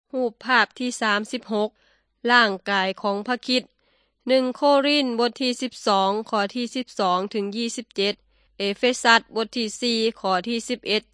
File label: good news thai northeastern